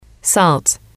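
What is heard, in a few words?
"salt", English, female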